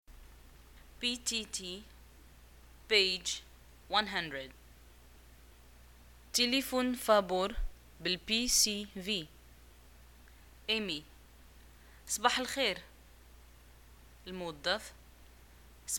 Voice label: dialogue, Arabic, North African, Moroccan

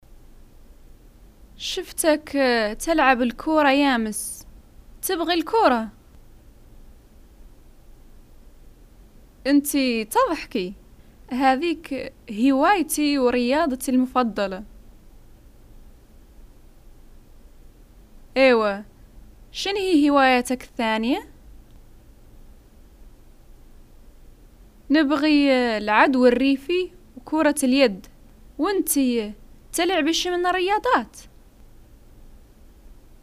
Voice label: dialogues, hassaniya

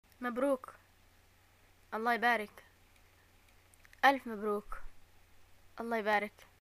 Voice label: greeting marriage, arabic, ye

